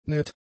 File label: "nett", German; adjectives